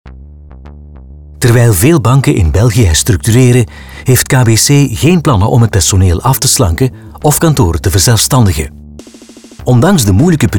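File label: Belgian corporate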